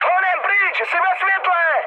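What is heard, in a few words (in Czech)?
Czech searchlight, mood high; War Thunder; speech cze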